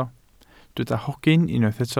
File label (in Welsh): "hogyn" Welsh